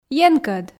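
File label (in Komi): "енкӧд", Komi, female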